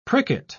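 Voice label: "pricket", British English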